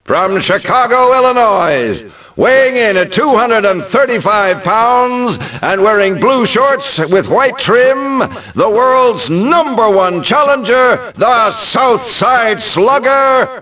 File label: announce, ENGLISH; Rocky; XBOX